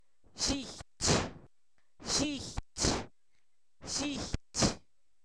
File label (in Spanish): espalda, Zapoteco, san miguel albarradas; cuerpo; INALI